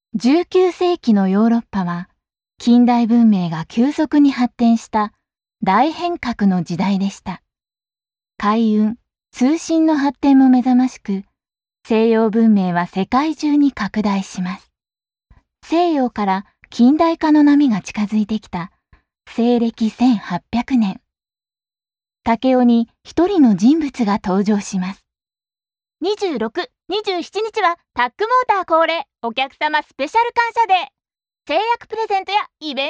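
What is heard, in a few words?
Japanese, female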